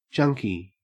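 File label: "junky", Australian English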